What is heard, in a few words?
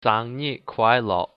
birthday, hakka